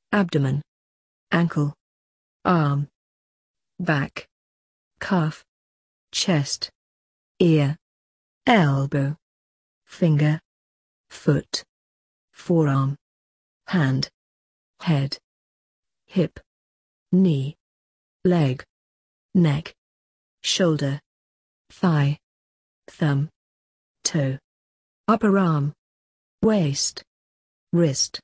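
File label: British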